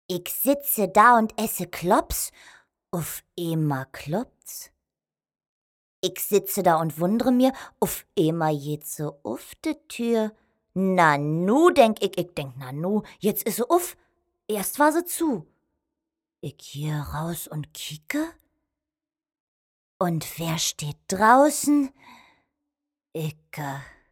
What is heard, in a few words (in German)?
dialekt trickstimme